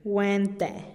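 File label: "guen-te", Teotitlán del Valle Zapotec; muybien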